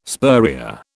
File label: "spurrier", British